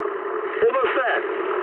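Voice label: English near waypoint mood medium; War Thunder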